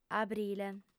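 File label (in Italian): "abrile", sarda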